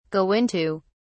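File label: "go into", British English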